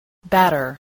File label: "batter", English